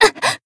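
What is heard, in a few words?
damaged, Japanese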